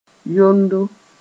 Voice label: "yondo", moore; sacks

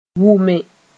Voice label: "wʋme", moore; to smell

